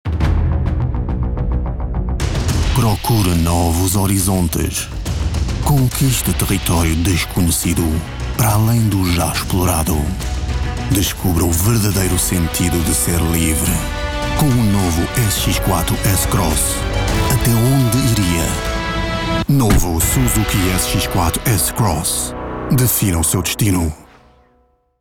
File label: portuguese narration